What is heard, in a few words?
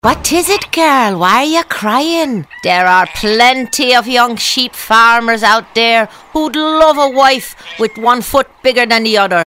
Irish